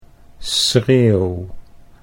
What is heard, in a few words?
"schreeuw", Dutch